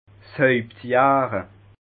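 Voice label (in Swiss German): "hauptjahre", Alsatian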